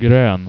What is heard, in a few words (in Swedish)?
"groen", Swedish